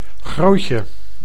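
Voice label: "grootje", Dutch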